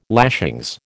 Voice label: "lashings", American